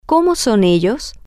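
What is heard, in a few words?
what are they like, Spanish, female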